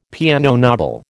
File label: "piano nobile", American